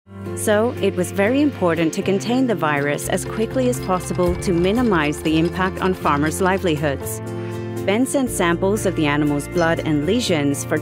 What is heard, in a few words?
Explainer Sample International English Informational Knowledgable Articulate